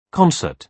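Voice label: "concert", British English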